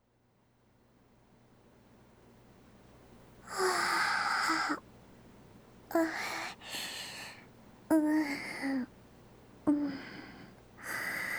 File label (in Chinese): 午觉・陪睡